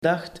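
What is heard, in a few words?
"dacht", Dutch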